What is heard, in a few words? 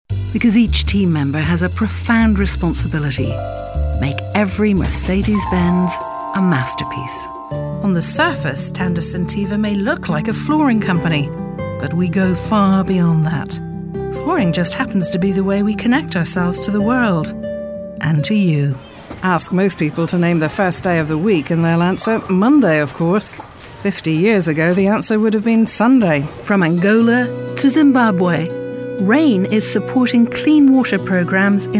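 Narration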